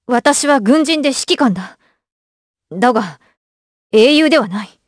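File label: vox dialogue, voices, Japanese; kingsraid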